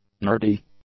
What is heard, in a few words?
"nerdy", english; adjectives